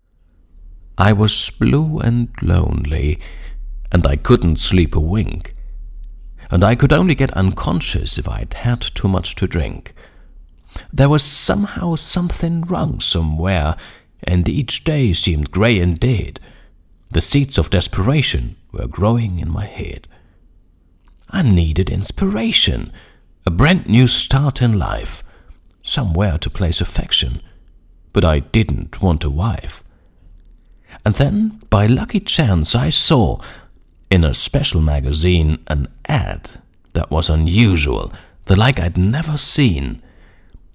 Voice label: english